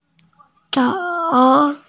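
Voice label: "zou3", Mandarin Chinese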